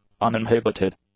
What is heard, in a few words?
"uninhibited", English; adjectives